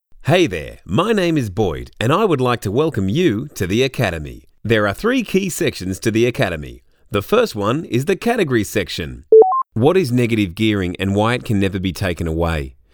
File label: Elearning compilation